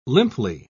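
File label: "limply", British English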